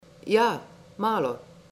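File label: Yes A Little, Slovenian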